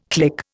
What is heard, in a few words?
"click", Indian